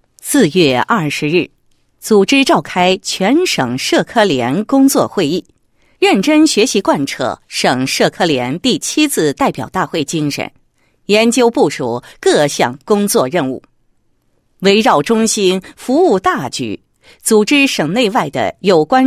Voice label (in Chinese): 女 总结 省社科联工作总结, 配音案例